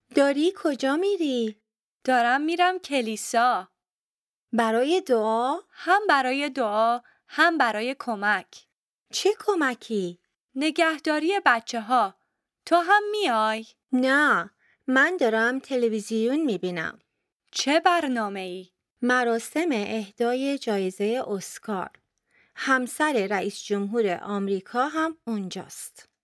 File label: Dialogue lesson Farsi